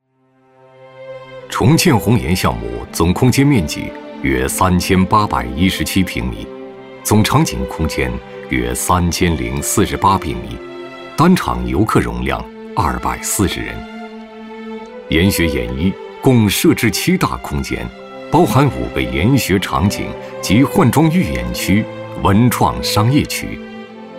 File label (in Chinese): 男 讲解片 场馆讲解